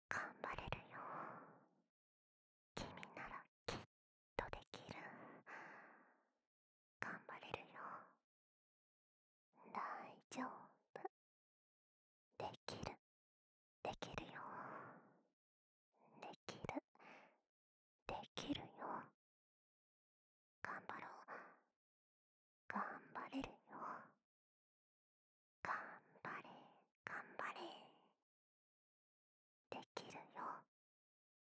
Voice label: 囁き 左耳

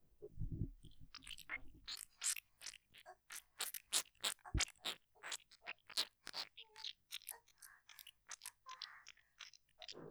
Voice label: 演技お疲れ様フリートーク; 本編・立体音響コース

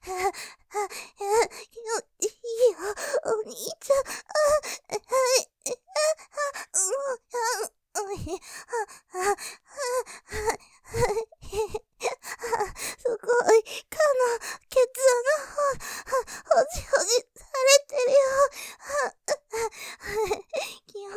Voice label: 喘ぎ 気持ちよくて微笑み混じりに淫語実況; ケツ穴ホジホジ; モノラル; ループで楽しむ！シェフの気まぐれ抜き抜きコース

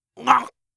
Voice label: "がっ！", 戦闘ボイス 老人 高音

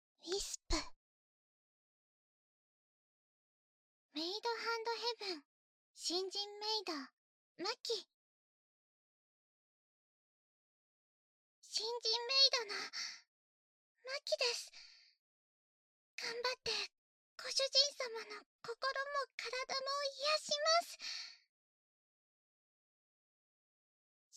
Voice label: タイトルコール, ASMR

